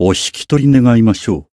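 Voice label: encounter, Japanese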